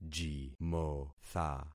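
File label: "jimotha", concatenated syllables; Affixing experiment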